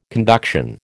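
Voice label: "conduction", American English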